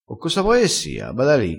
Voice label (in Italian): "bada li", crespinese